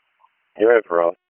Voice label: Comm Center Intersystem, p25; Wake